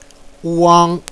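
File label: "uang1", Chinese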